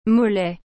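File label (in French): "mollette", French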